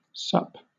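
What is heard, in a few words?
"sup", British English; pronunciations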